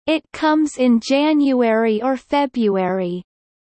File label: Kelly low; spring festival